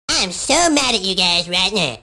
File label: "mad", Furby